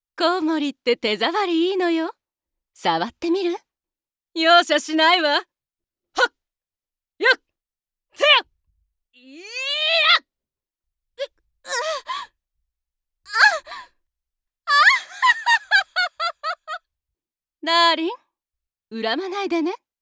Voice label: female, Japanese video game; camazotz in mythic hereos; Devine Voices